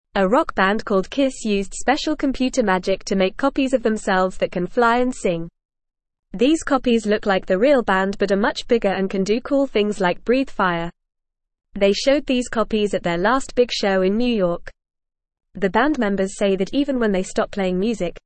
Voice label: English Newsroom Beginner FAST Reading